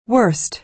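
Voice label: "worst", American English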